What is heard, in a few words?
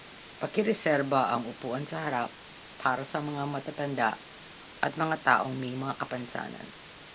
Reserve seats Filipino; bayarea; SFMuni